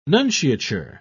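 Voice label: "nunciature", English, British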